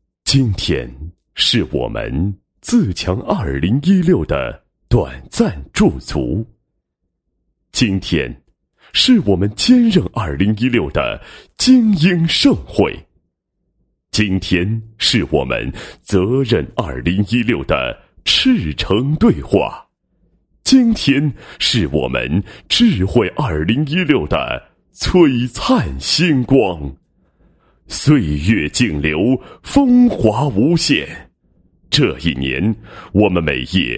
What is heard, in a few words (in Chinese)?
男 颁奖 颁奖典礼开场词